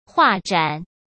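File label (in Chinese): "画展", Mandarin Chinese; hsk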